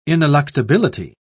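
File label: "ineluctability", British English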